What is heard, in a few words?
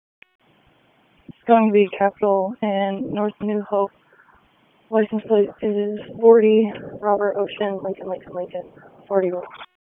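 Raleigh Police Northeast District Dispatch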